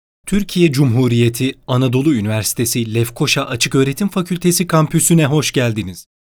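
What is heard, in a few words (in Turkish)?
karşılama anonsu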